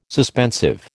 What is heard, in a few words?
"suspensive", American